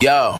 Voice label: "yo", Voice